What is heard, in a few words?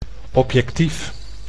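"objectief", Dutch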